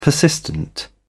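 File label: "persistent", British English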